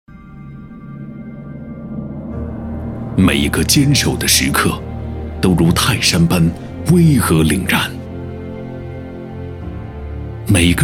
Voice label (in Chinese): 男 军配; 中国武警成品